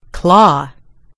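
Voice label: "claw", British English; gcompris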